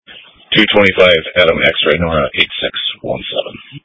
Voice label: Police Dispatch